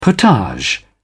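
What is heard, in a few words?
"potage", British English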